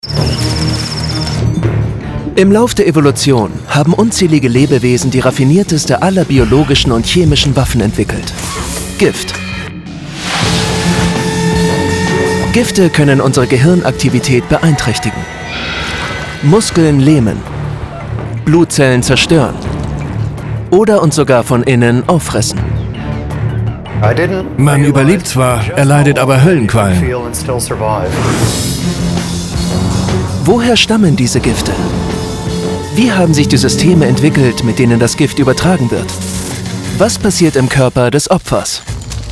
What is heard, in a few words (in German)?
dokumentation; nat geo